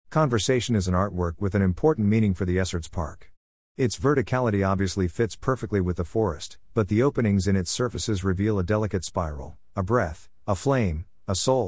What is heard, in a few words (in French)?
anglais